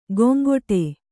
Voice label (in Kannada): "ಗೊಂಗೊಟೆ", Kannada